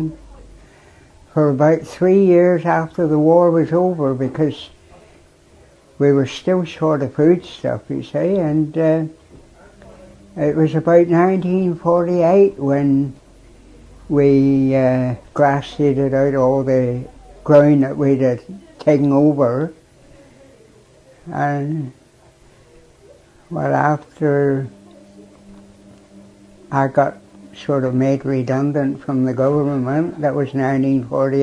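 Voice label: england, International Dialects of English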